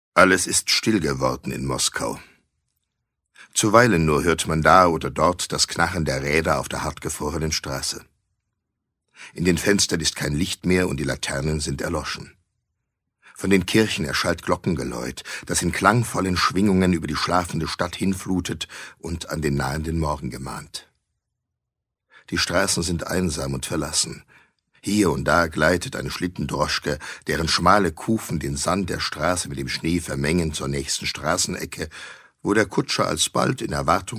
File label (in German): hoerbuch; die kosaken